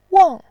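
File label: "wang", Mandarin Chinese, tone 4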